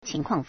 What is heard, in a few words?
"qing2 kuang4", Chinese